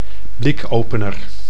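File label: "blikopener", Dutch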